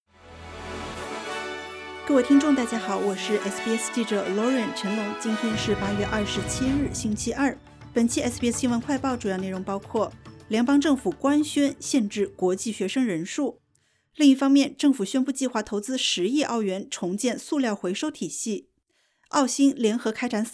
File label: mandarin news flash; SBS新闻快报; 政府官宣限制国际学生人数; SBS Chinese; 2024年8月27日：联邦政府正式宣布，计划明年将国际学生入学人数限制在27万人，其中公立大学将有14.5万个名额